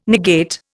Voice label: "negate", Indian

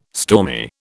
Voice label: "stormy", British

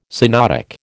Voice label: "synodic", American

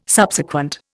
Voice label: "Subsequent", Indian

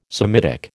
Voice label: "Semitic", American

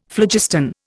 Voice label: "phlogiston", Indian; Dictionary

